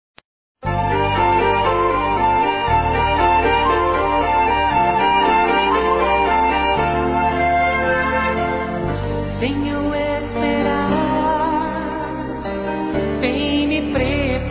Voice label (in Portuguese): Aniversário Avó Evangélico Feminino; Aniversários; Aniv Familiar